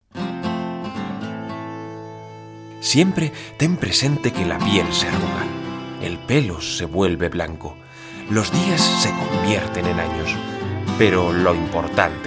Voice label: spanish narration